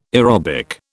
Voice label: "aerobic", British